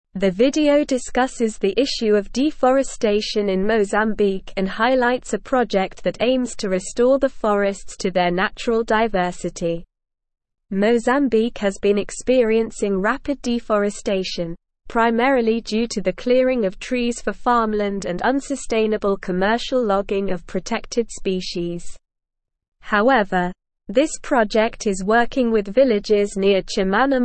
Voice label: English SLOW Reading; Restoring Mozambiques Forests A Sustainable Partnership for C